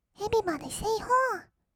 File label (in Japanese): エビバディせいほー, kawaii voice; ASMR; 1200 kawaii voice!!; 音ゲー